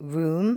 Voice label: "room", L2 English

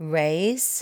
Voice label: "raise", L2 English

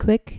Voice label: "kwik", Dutch

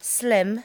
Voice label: "slim", english

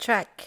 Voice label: "trek", english

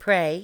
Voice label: "prei", english

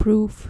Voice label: "proof", dutch

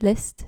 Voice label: "list", Dutch

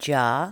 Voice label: "jar", english

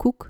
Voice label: "koek", Dutch

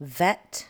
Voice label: "vet" english

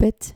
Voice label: "pit", Dutch

